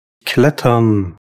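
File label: "klettern", German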